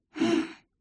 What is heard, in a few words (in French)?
ENERVEMENT; mirumiru